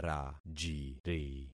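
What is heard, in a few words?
"rajiri", concatenated syllables; Affixing experiment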